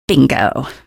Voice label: kill, female; video games; npc; source engine; episodic; episode 1

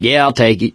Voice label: take submachine gun, survivor voice; source engine; left 4 dead 2

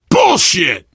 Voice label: swear, player survivor voice biker

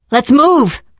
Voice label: revive friend, survivor voice teen girl

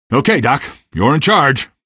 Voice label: squad train, male; Source Engine; video game; npc

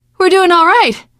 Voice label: hurrah, teen girl, survivor voice; source engine; left 4 dead 2

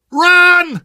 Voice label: emphatic run, survivor voice

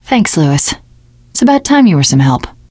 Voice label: thanks, teen girl; source engine; left 4 dead 2; survivor

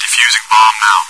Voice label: defusing bomb now, bot; Source Engine; cstrike

